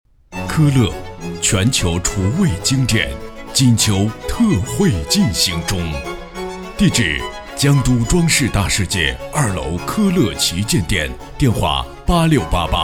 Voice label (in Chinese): 男, 广告, 品牌广告; 科勒金秋15S